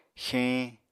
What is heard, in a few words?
"xeeñ", Apatani